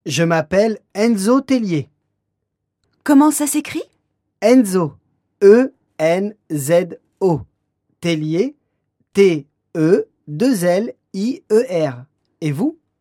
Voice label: spell name, French; spelling your name in French